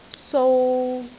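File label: "sou3", Cantonese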